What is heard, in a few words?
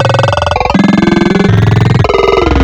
Retro Speaking; Vowel; Synthetic's Serum Collection